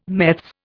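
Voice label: "meths", English, Indian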